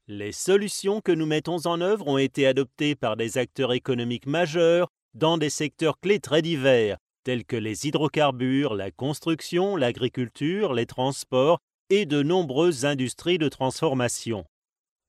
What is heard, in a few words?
male french voice over upbeat, france